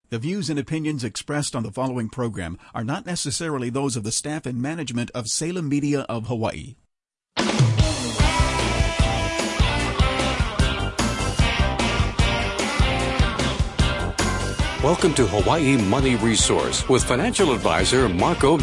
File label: podcast; hawaii money resource; libsyn